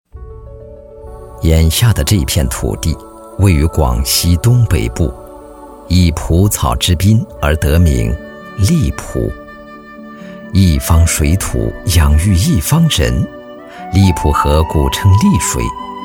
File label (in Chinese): 男 景区宣传片 大气舒缓; 荔浦河; 男35-历史感强